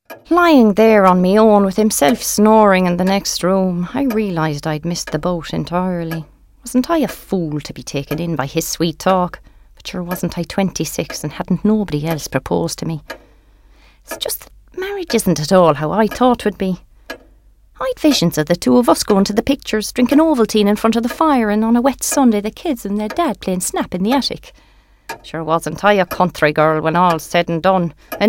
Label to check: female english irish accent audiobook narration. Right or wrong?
Right